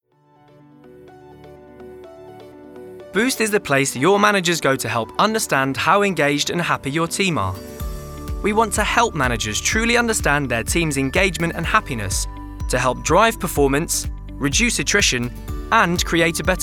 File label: male english corporate; Devine Voices